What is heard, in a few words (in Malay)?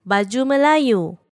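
"baju melayu", Malay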